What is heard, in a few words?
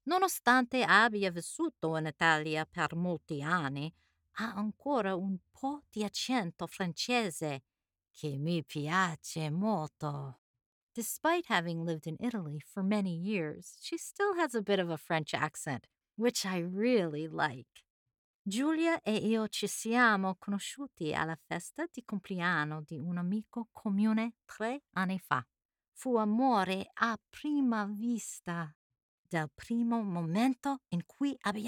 Italian Narration